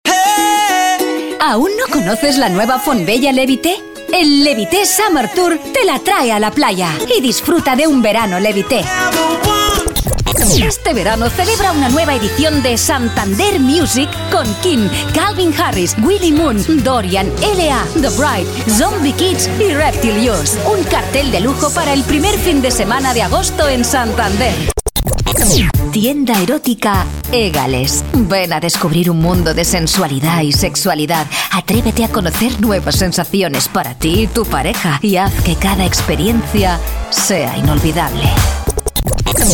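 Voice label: female Castilian Spanish voice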